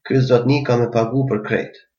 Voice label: pay, albanian gheg